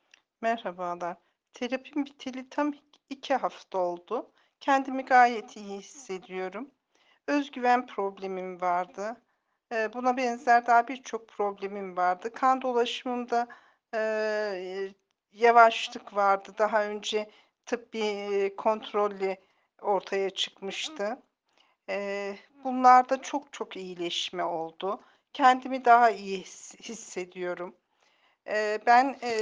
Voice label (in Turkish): sesli mesaj